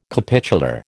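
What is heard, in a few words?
"capitular", American English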